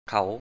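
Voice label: "khǎw/kháw" Thai, The pronoun 'he, she, they' is normally pronounced kháw. In careful, emphasized speech (i.e. spelled pronunciation) it is pronouned khǎw.; เขา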